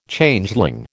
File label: "Changeling", American